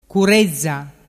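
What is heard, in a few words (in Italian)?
"curezza", Vernazzese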